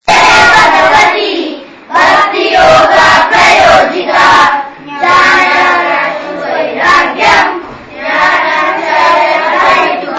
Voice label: Kids 5 year old Recitation Learning Verses, Srimad Bhagavatam; Sloka recitation; Imp Verses; SB01-2-7